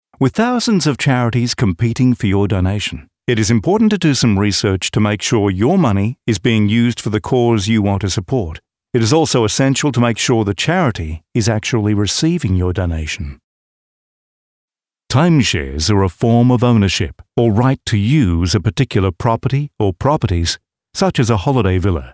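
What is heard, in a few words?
male narration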